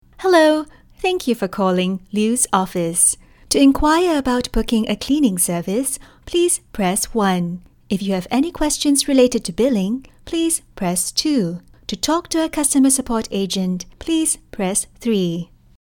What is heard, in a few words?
english singapore IVR; Una actriz de voz cálida, amigable y versátil con atractivo global.; singaporean english